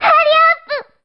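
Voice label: "hurry", Japanese; voice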